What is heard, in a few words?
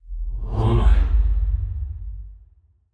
confused; aragami